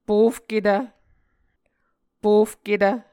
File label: "pofketv", Muscogee